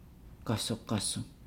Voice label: "narrow", Nukuoro